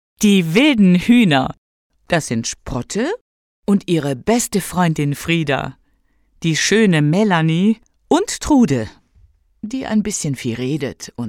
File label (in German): Casting Demo Literatur fuer Kinder und Jugendliche